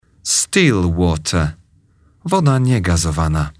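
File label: "still water", English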